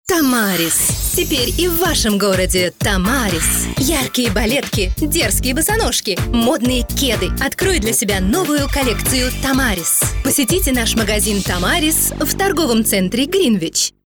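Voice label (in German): Russian Werbung Dynamisch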